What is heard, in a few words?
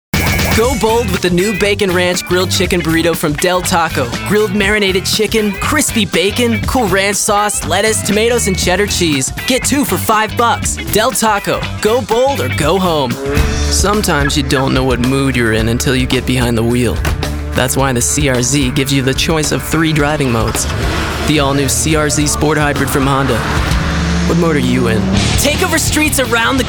Commercial